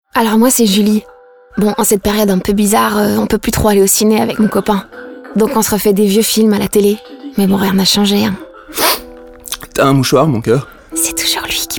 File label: radio, FR; SmartTV; Telenet